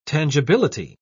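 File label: "tangibility", British English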